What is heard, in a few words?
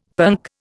"punk", Indian; Dictionary